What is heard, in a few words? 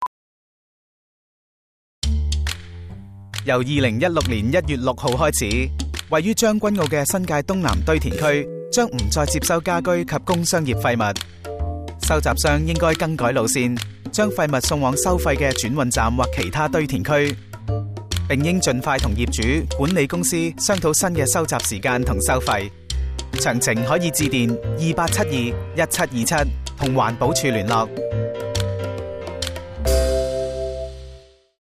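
radio Cantonese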